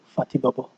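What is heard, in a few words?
"fatibobo", Nigerian Pidgin, male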